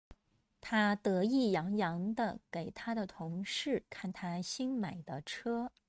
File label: denoised; Chinese Idiom得Dé意yì洋yáng洋yáng